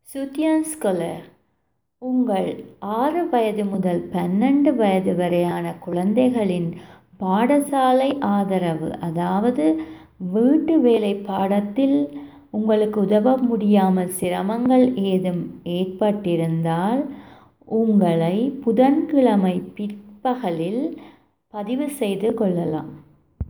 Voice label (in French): audio tamoul